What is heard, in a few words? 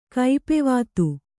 "ಕೈಪೆವಾತು", Kannada